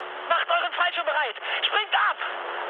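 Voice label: German bailout mood med, speech; War Thunder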